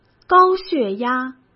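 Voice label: "高血压", Mandarin Chinese; hsk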